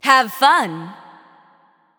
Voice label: Announcer Emote; LoreLady

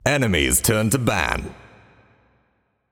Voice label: Announcer Draft Enemy Ban; paladins

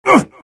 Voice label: pain; silencer

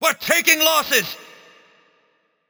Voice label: Announcer Match Slain Ally; MechaCommander

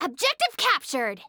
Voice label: Announcer Match Objective; Evie

